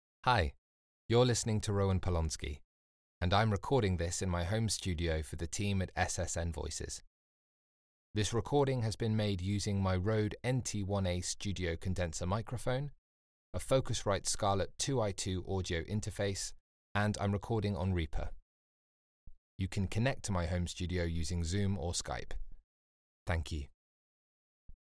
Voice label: Home Studio Sample